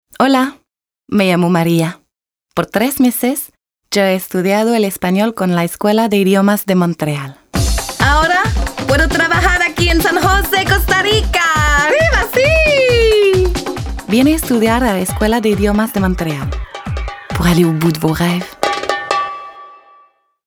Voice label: espagnol annonceur conversation quotidienne adulte medium

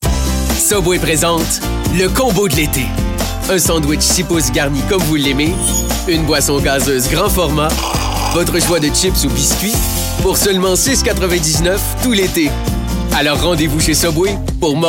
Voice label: francais quebecois naturel annonceur amical avec sourire energique; subway; promo

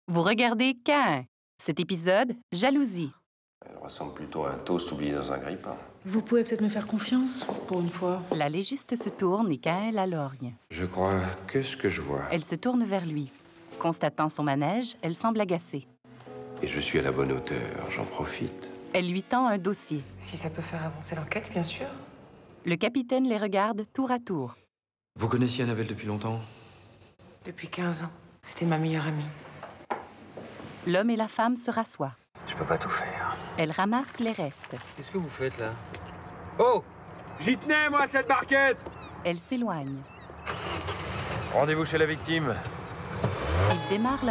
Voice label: videodescription narration fr normatif sobre posee articulation exceptionnelle

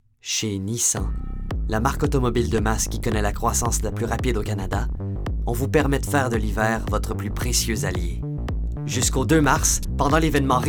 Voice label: francais normatif quebecois naturel annonceur affirme determine; nissan; energique promo

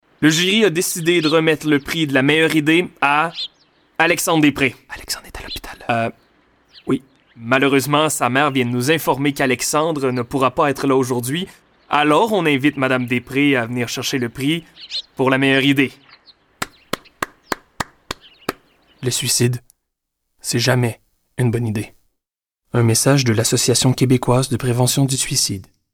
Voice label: narration fr qc naturel conversation quotidienne; prevention suicide; explicatif sobre

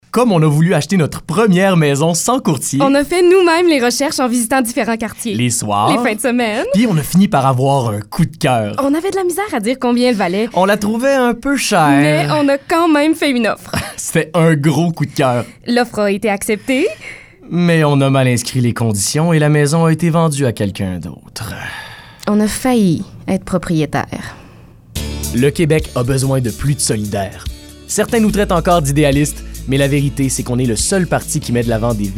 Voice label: demo personnage

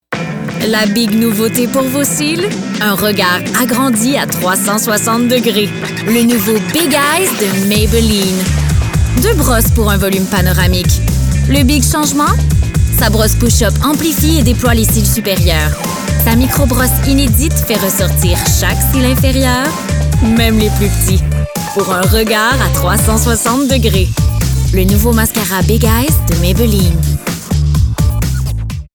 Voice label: pub annonceur fr qc naturel amical avec sourire energique adulte; maybelline

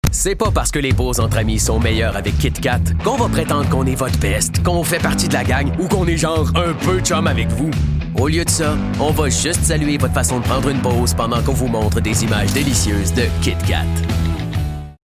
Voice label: publicite francais quebecois naturel annonceur affirme; nestle kitkat; amical

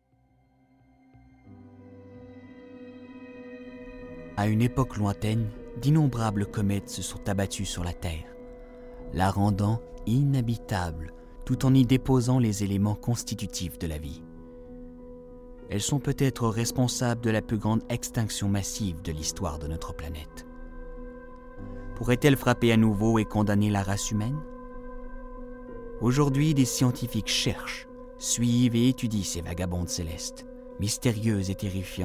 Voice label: narration

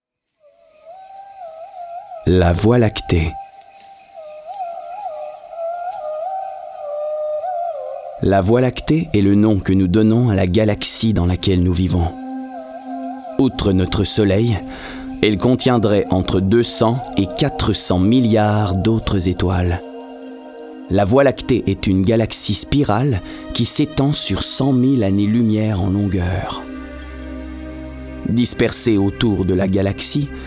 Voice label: narration personnage serieux livre audio fr normatif douce; la voie lactee; rassurante

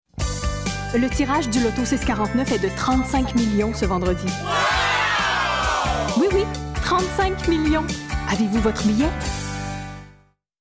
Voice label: fr qc naturel amicale avec sourire energique adulte; loto 649

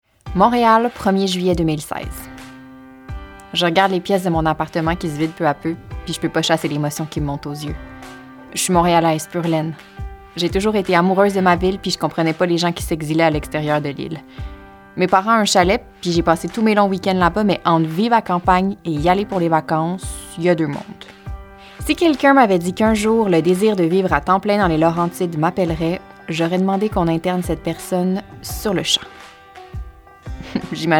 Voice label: chronique radio fr qc naturel amical avec sourire rassurante adulte medium

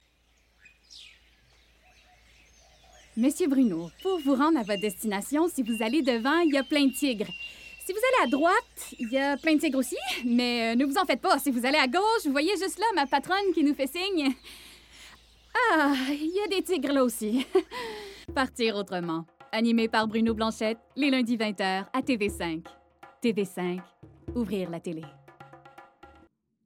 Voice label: annonceur personnage humour amical avec sourire jeune adulte; tv5

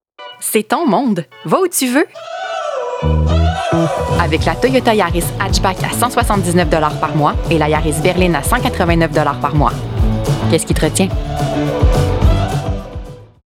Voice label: annonceur fr qc naturel amical avec sourire energique medium adulte; toyota

